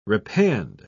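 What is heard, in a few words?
"repand", English, British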